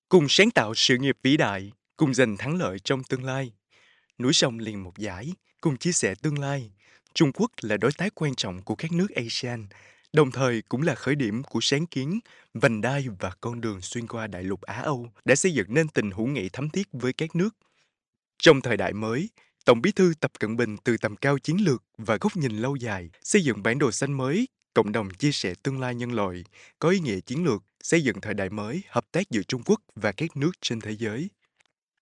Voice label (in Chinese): 越南语男声